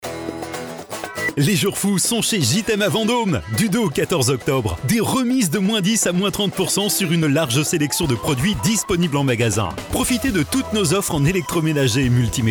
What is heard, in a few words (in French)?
PUB VOIX OFF